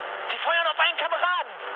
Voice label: player friendly fire, mood med, speech ge